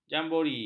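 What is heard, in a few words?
"jambori", Malay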